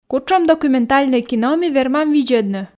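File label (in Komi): кутшӧм документальнӧй кино ми вермам видзӧдны, Komi, female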